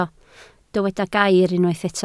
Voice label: "gair", Welsh

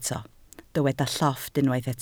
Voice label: "llofft", Welsh

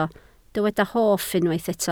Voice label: "hoff", Welsh

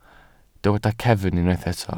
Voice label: "cefn", Welsh

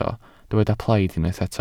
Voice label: "plaid", Welsh

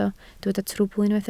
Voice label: "trwbl", Welsh

